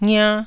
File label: "ngia1", taiwanese syllables